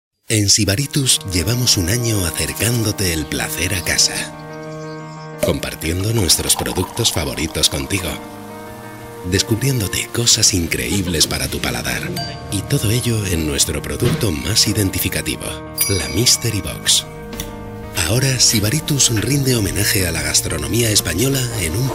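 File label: Spanish Spain, male, voice over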